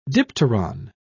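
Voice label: "dipteron", British English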